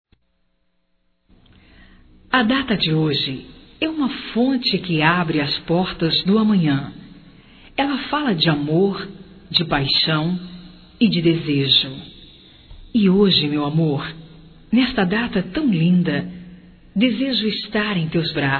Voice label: Aniversário Feminino, Telemensagens; Aniversário Encontro Feminino

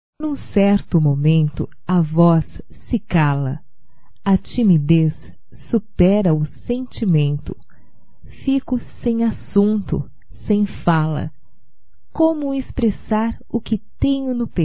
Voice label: É SÓ OLHAR - F, Românticas fem

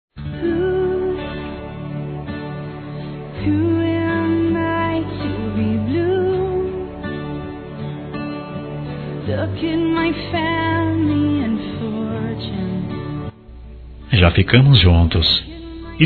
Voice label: Quer Namorar Comigo Masculino; Telemensagens; Pedidos

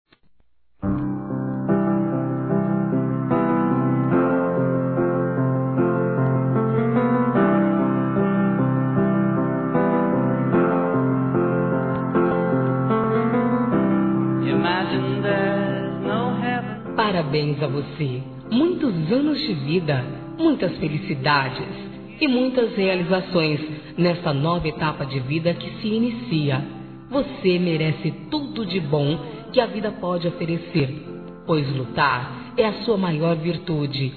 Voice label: ANIV. NEUTRO F

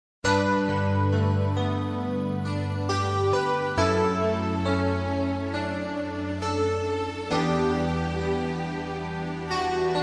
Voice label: ROM RECONCILIACAO POESIA FEM, Telemensagens

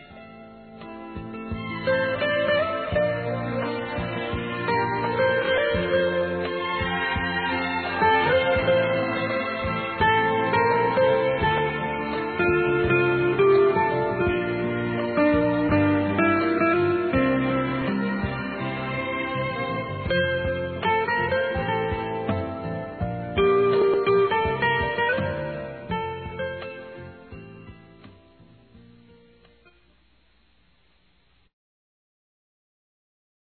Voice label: PARAB. PROFISSIONAL M, Telemensagens; Empresarial; masc; Telemensagens 2017

